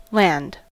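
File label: "land", US English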